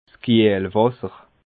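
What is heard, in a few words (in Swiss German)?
"kiehlwasser", Alsatian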